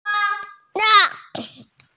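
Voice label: "jing3cha2" Mandarin Chinese, female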